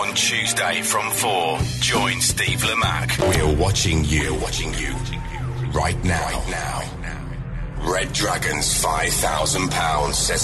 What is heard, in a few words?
radio imaging, voice